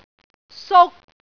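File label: "suk3", Cantonese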